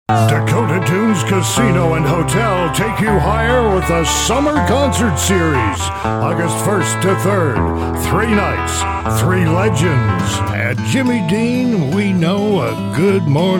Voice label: Voice Actor